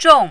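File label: "zhong4", mandarin, falling tone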